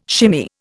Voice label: "shimmy", Indian